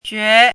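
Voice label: "jue" second tone, pinyin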